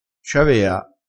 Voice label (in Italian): "ciavea", crespinese